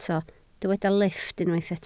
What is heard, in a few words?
"lifft", Welsh